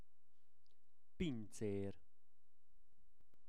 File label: "pincer", Hungarian; szavak es kifejezesek